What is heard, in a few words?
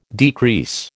"decrease", American